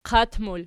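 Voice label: "qat" plural, Archi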